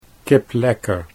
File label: "kiplekker", Dutch